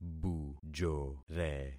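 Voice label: "bujore", concatenated syllables; Affixing experiment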